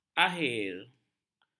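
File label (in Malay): "akhir", Malay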